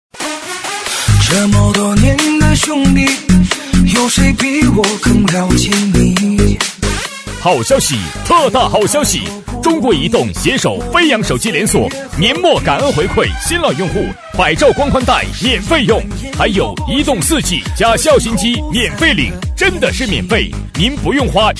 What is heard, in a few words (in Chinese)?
促销; 中国移动